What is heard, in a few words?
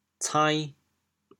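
"cain2", Teochew, chaoyang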